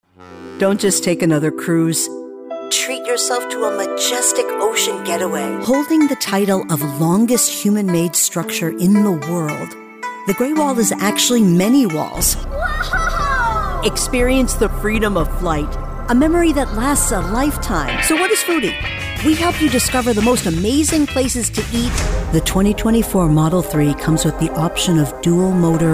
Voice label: commercial